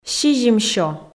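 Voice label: "сизимсё", Komi, female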